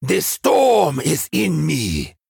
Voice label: Male Troll

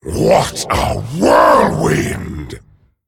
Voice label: Male Dragon Wow

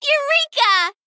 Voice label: Female Gnome Attack

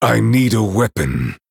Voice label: Male Night Elf ERROR NEED WEAPON

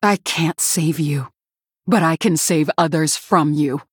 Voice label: Female Human Mission Intro

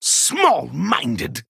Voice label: Male Blood Elf Attack

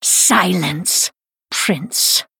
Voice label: Female Blood Elf In Game Emote Response

